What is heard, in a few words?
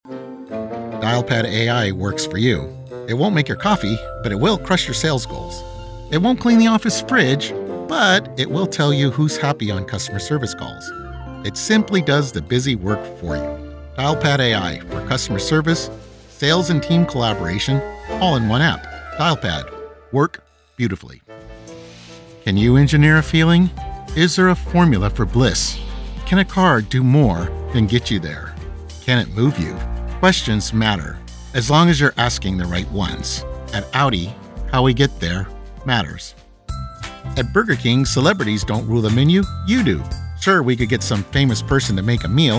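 COMMERCIAL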